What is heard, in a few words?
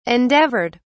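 "endeavored", British English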